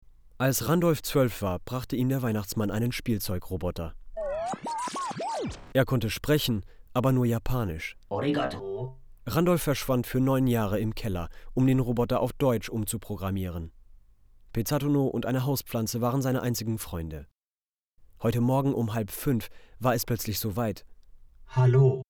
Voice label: German PSA Smoking